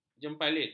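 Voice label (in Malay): "jempalit", Malay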